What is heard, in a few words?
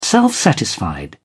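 "self-satisfied", British English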